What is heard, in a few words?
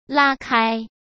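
"拉开", Mandarin Chinese; hsk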